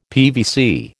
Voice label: "PVC", American

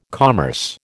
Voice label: "commerce", American

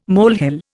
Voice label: "molehill", Indian

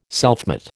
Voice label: "selfmate", American

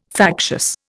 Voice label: "factious", Indian

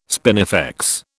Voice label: "spinifex", British

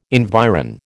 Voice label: "environ", American

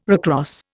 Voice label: "recross", Indian

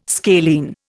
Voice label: "scalene", Indian

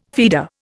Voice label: "feeder", Indian

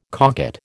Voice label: "cocotte", American

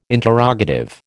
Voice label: "interrogative", American

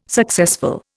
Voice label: "successful", Indian

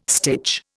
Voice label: "stitch", Indian

